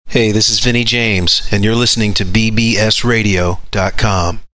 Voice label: bbs radio promo